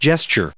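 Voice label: "gestures", American English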